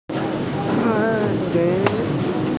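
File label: "hu2die2", Mandarin Chinese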